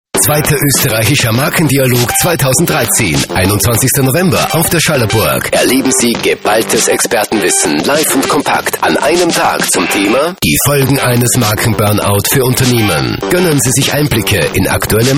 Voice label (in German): Spot; Markendialog 2013